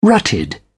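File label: "rutted", British English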